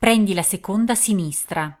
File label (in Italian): 2nd Left, Italian, female